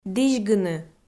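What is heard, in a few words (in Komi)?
"дізьгыны", Komi, female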